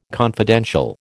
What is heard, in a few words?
"confidential", American